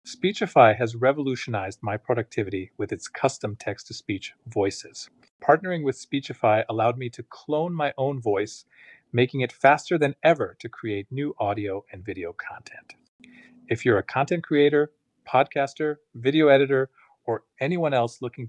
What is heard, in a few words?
cloned voice